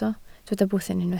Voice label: "bwthyn", Welsh

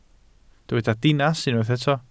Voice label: "dinas", welsh

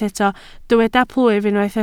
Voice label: "plwyf", Welsh

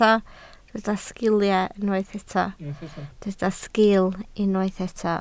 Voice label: "sgiil", Welsh

